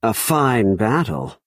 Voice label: male good game; Imperial